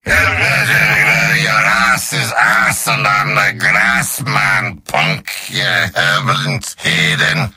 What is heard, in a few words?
gibberish; demoman; video games; source engine; tf; mvm